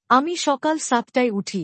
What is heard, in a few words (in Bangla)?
I wake up at 7 AM bangla; Time and Routine